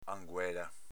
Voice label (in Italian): "anguela", dialetto veneto